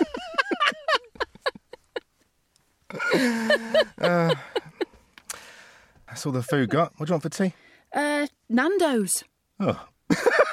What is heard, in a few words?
improvised chit chat for a car commercial set in a supermarket car park